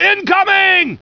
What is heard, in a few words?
incoming, fgrunt